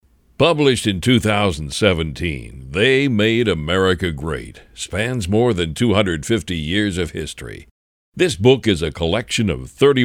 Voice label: Audiobooks

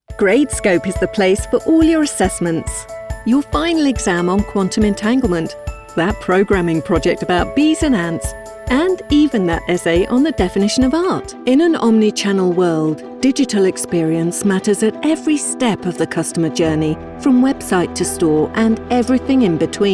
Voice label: Explainer Reel musicbed

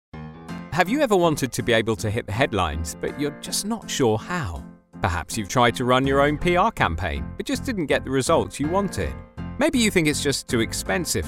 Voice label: EXPLAINER REEL no slate

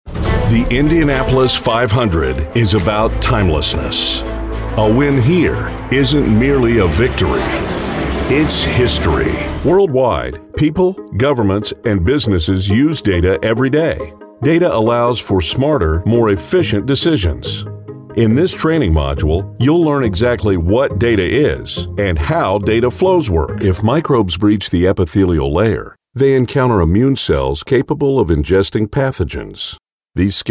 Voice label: Narration E Learning Corporate

